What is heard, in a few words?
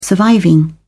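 "surviving", British English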